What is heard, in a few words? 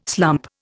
"slump", Indian